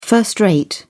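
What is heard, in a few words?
"first rate", British English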